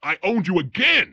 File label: punk gloat owned; Dystopia; vox new